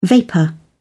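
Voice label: "vapour", British English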